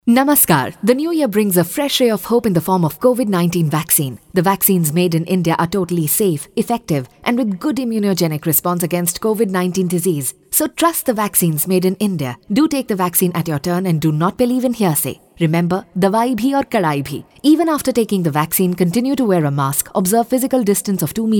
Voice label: Caller Tune Eng